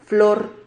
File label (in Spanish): "flor", Silabas